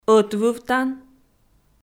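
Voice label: "ӧтвывтан", Komi, female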